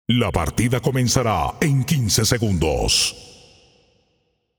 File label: Announcer Match Begin; Paladins